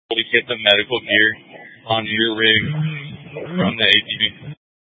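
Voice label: Incident Tactical, scanner audio recording; Incident Tac 1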